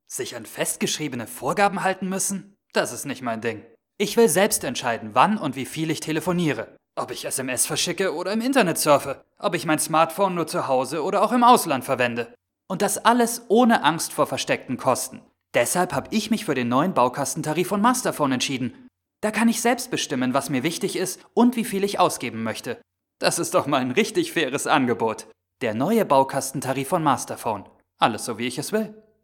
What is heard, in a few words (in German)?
Werbung; Masterphone